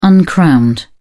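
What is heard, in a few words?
"uncrowned", British English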